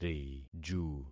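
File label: "riju", concatenated syllables; Affixing experiment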